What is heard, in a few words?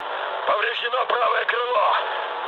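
right wing, mood low, Russian; War Thunder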